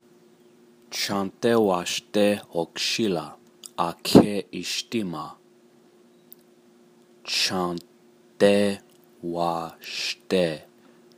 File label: lakota song prononciation